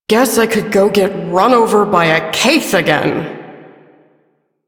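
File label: Female Sedentary; Warframe; Duviri; Duviri Ambient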